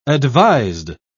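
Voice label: "advised", British English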